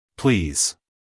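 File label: "please", American English, male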